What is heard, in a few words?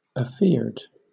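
"afeared", British English; pronunciations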